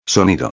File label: "sonido", espanhol